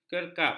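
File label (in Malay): "kerkap", Malay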